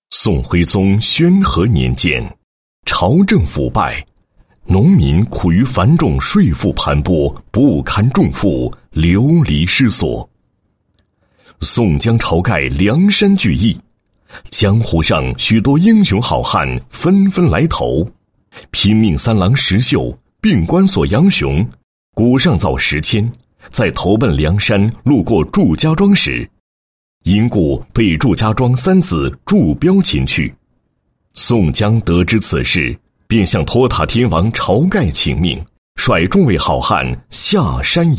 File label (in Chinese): 男, 片头片尾; 梁山 三打祝家庄